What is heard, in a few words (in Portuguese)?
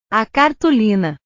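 "a cartolina", Portuguese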